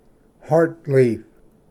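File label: "heart leaf", English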